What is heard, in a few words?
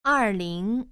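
"er ling", Chinese, tones 4 and 2, female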